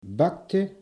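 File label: "bakte", Dutch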